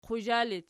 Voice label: "quzha", Archi

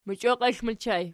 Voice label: "mochioklexl", Archi

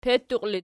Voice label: "petuqi", Archi

